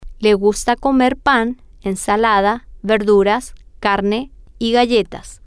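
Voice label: female, spanish; she likes to eat bread salad vegetabl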